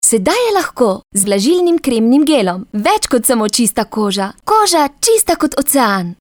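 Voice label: slovenian female commercial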